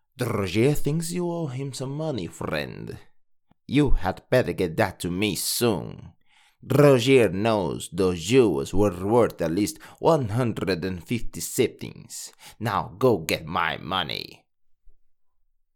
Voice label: sample, negative, khajiit voice auditions; drozhirr

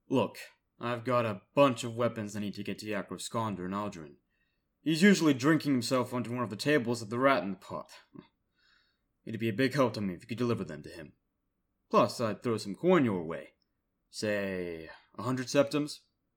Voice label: imperial american style

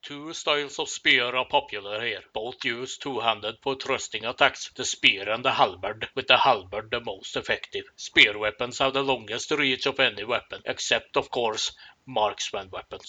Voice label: audition nord, voice acting

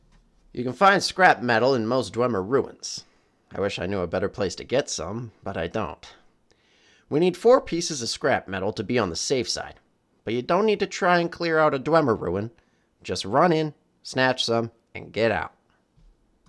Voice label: bosmer male, wood elf voice auditions

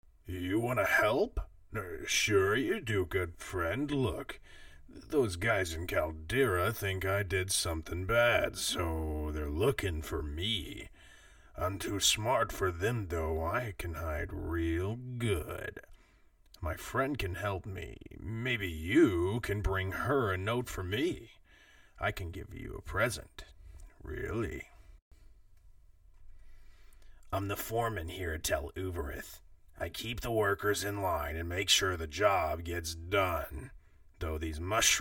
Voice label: orc audition

